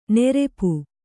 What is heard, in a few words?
"ನೆರೆಪು", Kannada